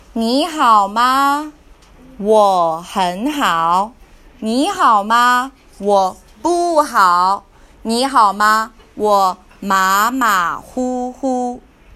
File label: chinese greetings